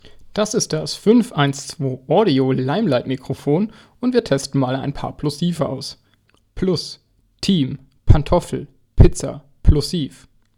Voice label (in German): audio limelight plosive flat